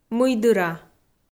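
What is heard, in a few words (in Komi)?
"мыйдыра", Komi, female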